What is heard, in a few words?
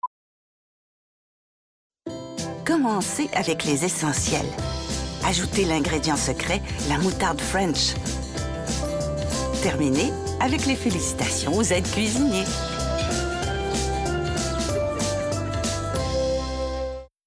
French's Grandma, Fr